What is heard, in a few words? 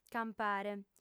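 "campare", female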